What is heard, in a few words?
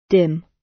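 "dim" British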